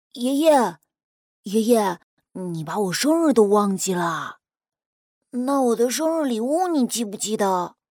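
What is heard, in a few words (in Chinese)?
男童 真童配音 8-12岁; 中文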